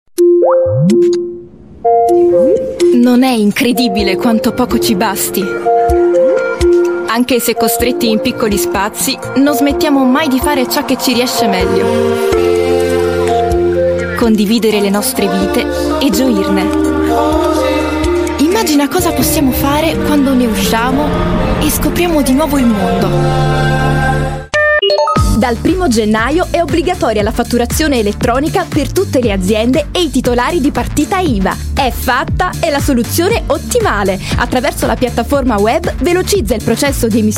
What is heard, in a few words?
italian voice over female commercial